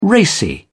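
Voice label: "racy", British English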